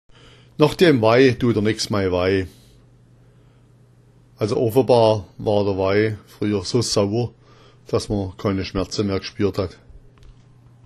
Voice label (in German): "wai", schwaebisch; nôch deam Wai duat dir nex mai wai; nach dem Wein tut dir nichts mehr weh.; Der Wein war dann offenbar so sauer, dass man keine Schmerzen mehr spürt.